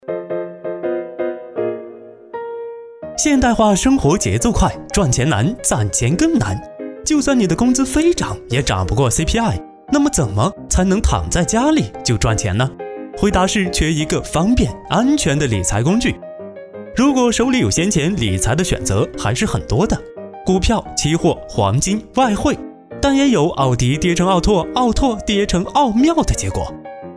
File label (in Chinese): 男 飞碟 财富; 融筹财富